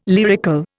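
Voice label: "lyrical", Indian English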